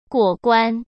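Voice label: "过关", Mandarin Chinese; hsk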